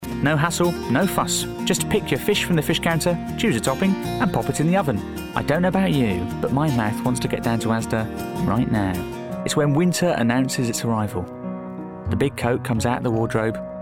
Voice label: commercial reel